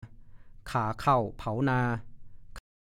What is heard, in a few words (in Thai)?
"ค่าเข่าเผานา", ภาษาโคราช